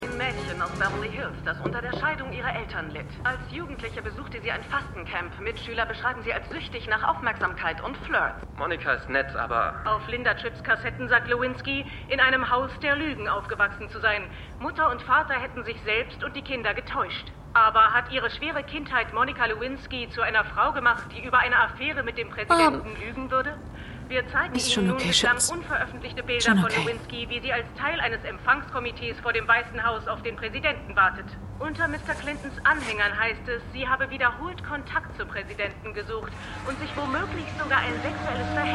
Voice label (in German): CNN Nachrichtensprecherin; AmericanCrimeStory